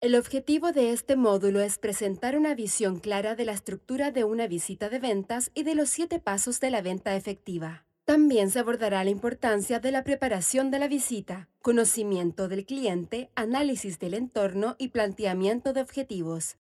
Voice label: eLearning/Training Female Spanish (Latin American)